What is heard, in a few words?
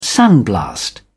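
"sandblast", British English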